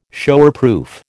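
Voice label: "Showerproof", American English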